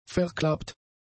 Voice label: "verklappt", German; adjectives